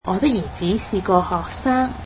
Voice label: "my son is a student", Cantonese